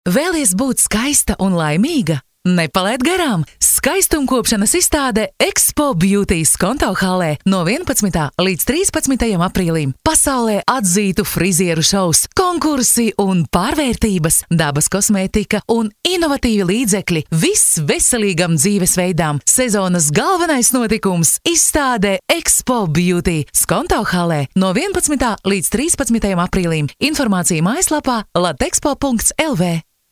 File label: premium female, balsai latviu moteris